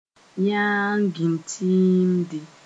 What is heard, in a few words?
"yaangintĩimdi", Moore; horizon